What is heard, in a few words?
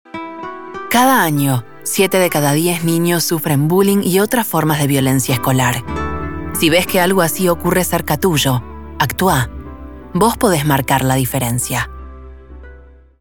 Narrator Serious; Narradora Serio